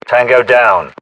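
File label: inform killfirm infantry, British; battlechatter; localized english; uk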